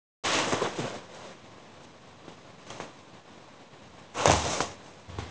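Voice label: "ai3", Mandarin Chinese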